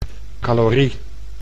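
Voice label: "calorie", Dutch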